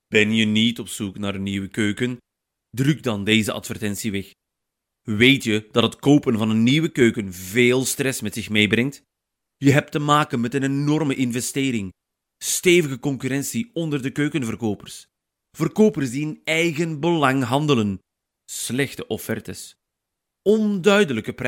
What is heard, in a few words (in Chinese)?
荷兰语男 外语 小语种